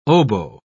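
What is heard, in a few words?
"oboe", spoken word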